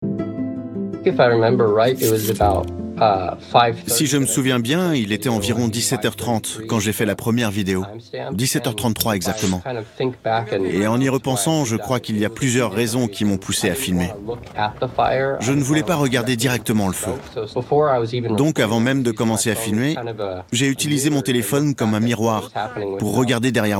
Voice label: Voice Over; Le Dessous des Images; HAWAII; Arte

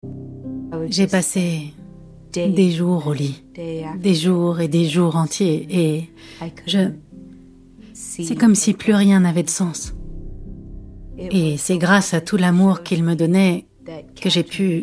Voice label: Voice Over; American Monster; role Gina

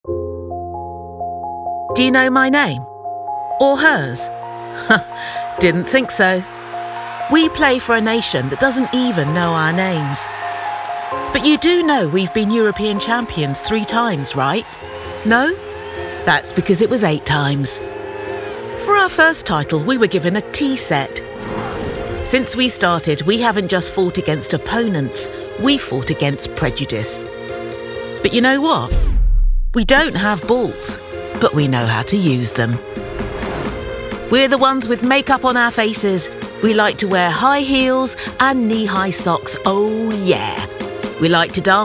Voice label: commercial natural; world cup

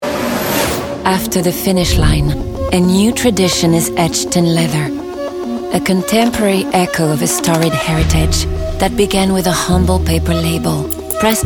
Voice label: PUB ENG; langue etrangere; Vuitton Grand Prix

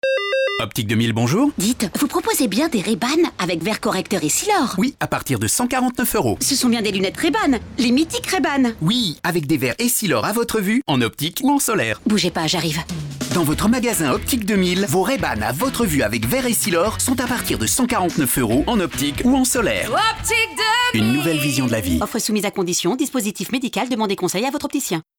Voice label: Radio; OPTIC 2000; RAY BAN

